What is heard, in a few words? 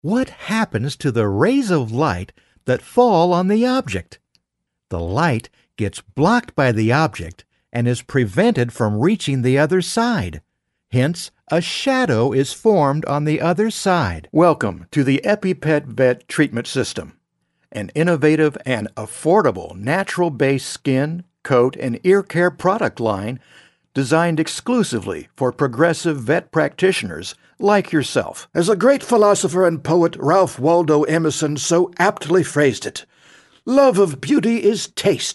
Narration